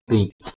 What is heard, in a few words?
"biit" Anglo Saxon